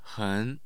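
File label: "hen", Mandarin Chinese, tone 2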